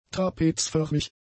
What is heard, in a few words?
"trapezförmig", German; adjectives